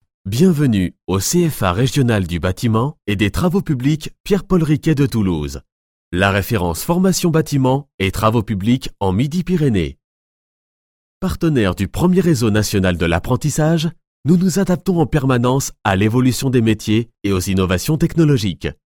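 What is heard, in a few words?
FR M corporate, French, male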